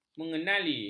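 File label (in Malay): "mengenali", Malay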